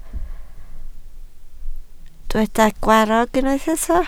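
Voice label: "gwarrog" Welsh, spoken word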